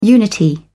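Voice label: "unity", English, British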